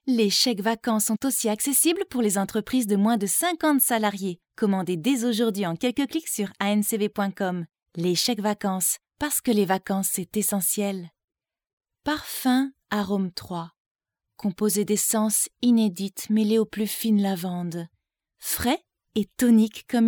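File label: French France, female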